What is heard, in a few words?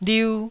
"liu1", Taiwanese syllable